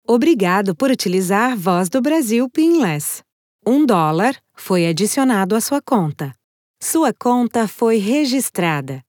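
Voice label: Brazilian, IVR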